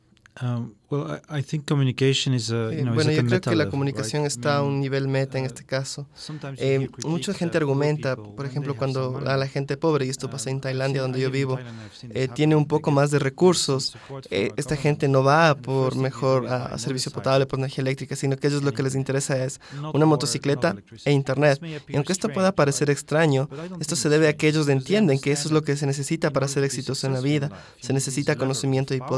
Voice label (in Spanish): castellano